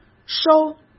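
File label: "收", Mandarin Chinese; chinese-flashcards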